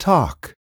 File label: "talk", spanish